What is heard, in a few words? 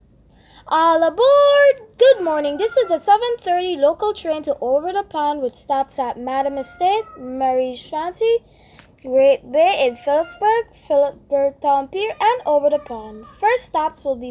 SMRR announcement, St Maarten Trains